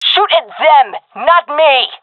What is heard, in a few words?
taking fire friendly, fem; vo; agents; Source Model Packs; Counter Strike Model Pack; CS2